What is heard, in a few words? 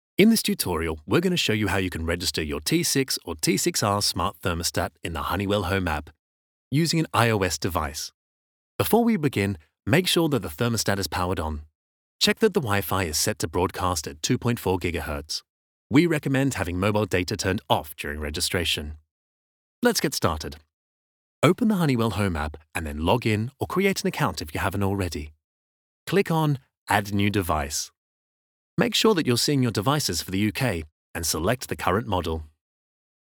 english british explainer videos